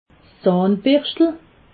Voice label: "zahnberscht", alsace

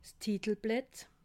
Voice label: "titel blatt", alsace

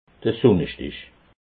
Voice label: "sunnestich", alsace

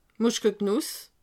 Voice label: "muschketnuss", alsace

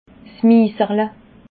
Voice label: "miserle", alsace

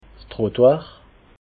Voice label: "trottoir", alsace

